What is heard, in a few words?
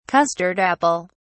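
"custard apple", British English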